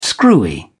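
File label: "screwy", British English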